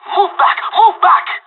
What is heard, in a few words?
radio bot fall back; Counter Strike Model Pack; CS:GO